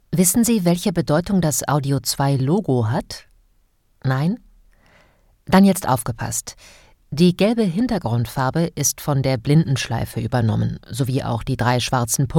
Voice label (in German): Hochdeutsch; sprechproben